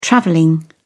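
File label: "traveling", British English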